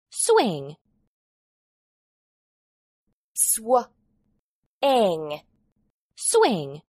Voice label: "swing", English